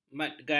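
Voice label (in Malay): "madgad", Malay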